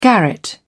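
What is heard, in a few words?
"garret", British English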